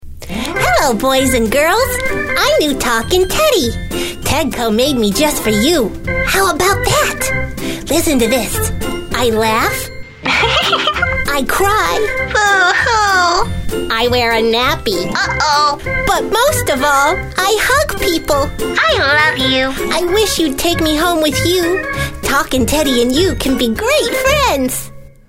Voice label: talking teddy